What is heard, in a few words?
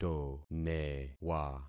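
"tonewa", concatenated syllables; Affixing experiment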